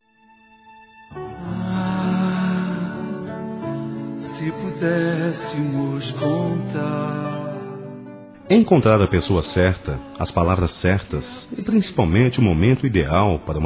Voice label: Casamento Masculino

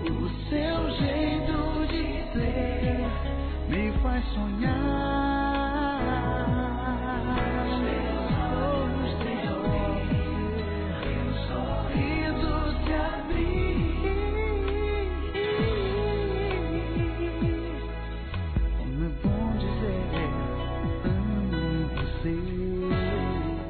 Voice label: NATAL ROMANTICO, masc

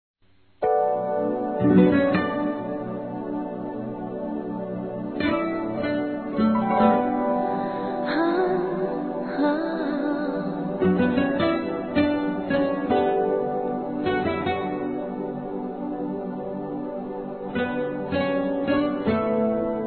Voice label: INICIO DE NAMORO, masc; Telemensagens 2017

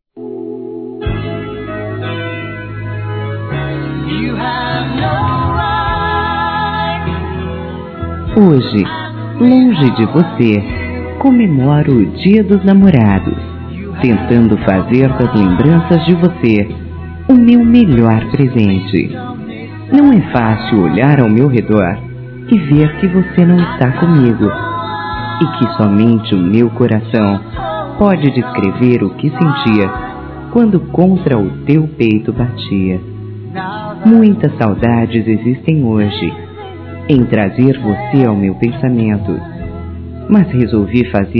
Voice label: Distantes Feminino; Dia Dos namorados; Telemensagens 2017

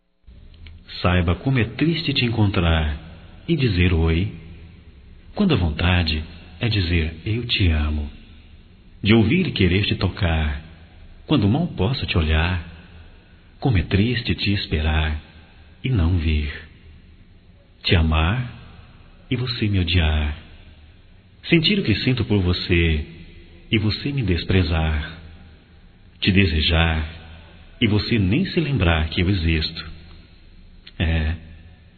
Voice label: Amor Não Correspondido Masculino, Telemensagens; Telemensagens 2017

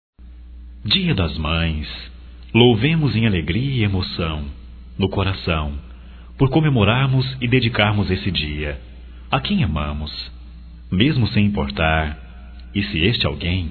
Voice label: HOMENAGEM, masc; Homenagens; Telemensagens 2017

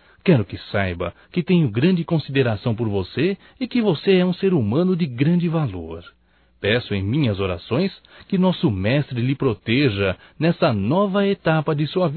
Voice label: ANIV AMIGA DE TRABALHO M, Telemensagens; Telemensagens 2017; Aniversarios

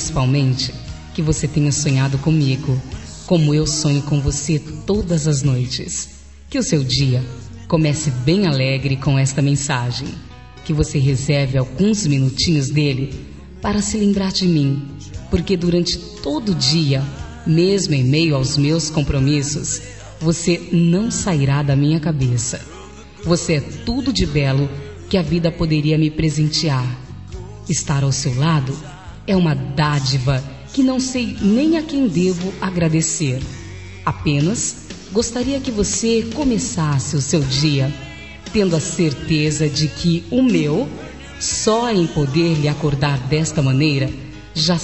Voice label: Telemensagem De Bom dia Meu Amor Feminina

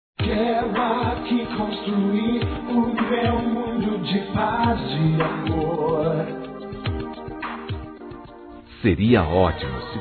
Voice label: AMIZADE GERAL, Telemensagens, Gospel, masc

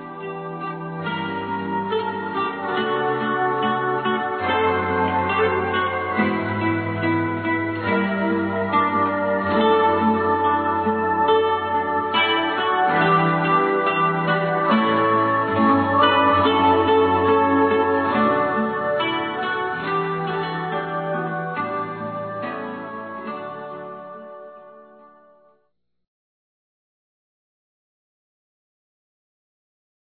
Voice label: NATAL P FILHA, masc; Telemensagens; Natal e Ano novo

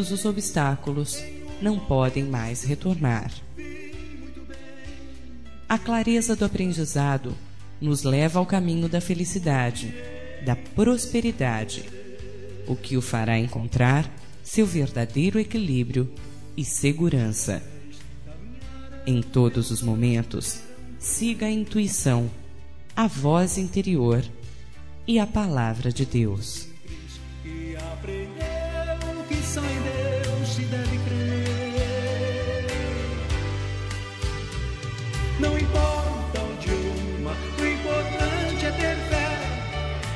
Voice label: A FORCA DO NOSSO AMOR FEM; Telemensagens

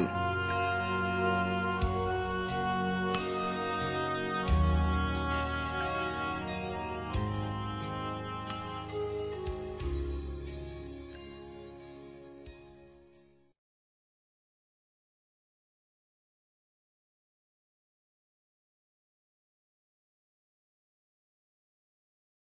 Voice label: PARCERIA ENTRE EMPRESAS-F; Telemensagens; Empresarial; fem; Telemensagens 2017

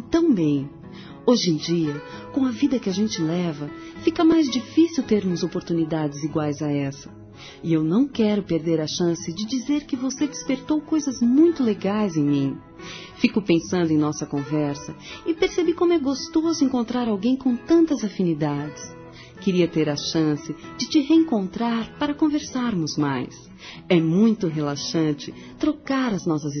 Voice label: adorei te conhecer, Fem; Amizade

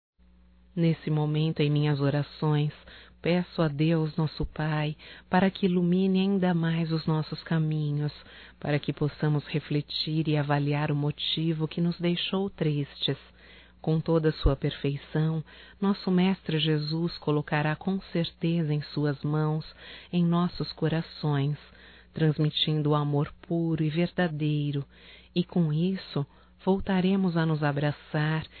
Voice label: DESCULPAS IrmãO EM CRISTO (F); fem; Telemensagens 2017

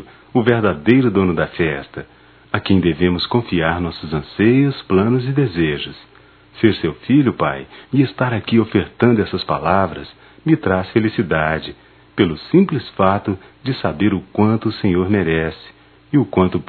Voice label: Natal para pai m; masc; Telemensagens 2017; Natal & Ano Novo

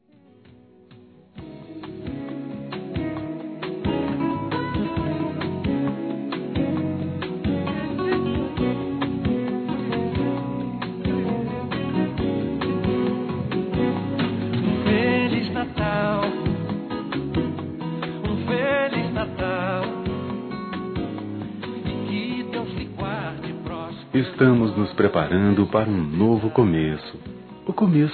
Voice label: NATAL NEUTRO M

